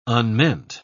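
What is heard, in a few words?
"unmeant", British English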